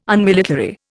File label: "Unmilitary", Indian